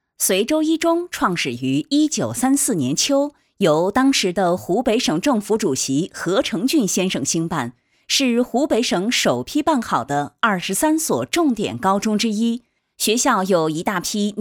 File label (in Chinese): 招生样音